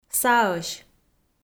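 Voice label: "саӧсь", Komi, female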